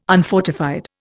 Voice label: "unfortified", Indian